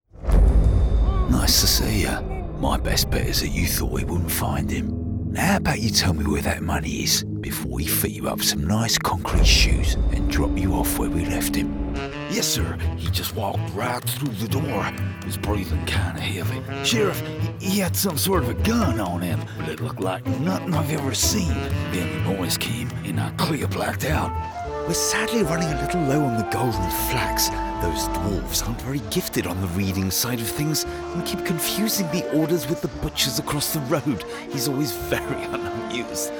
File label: Animation reel